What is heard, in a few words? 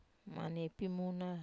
"maniai pimuna", Wamesa